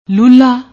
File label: "lulla", Punjabi